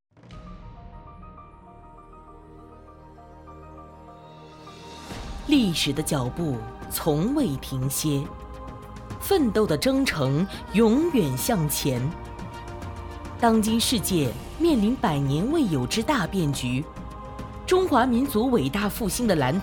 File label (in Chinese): 宣传片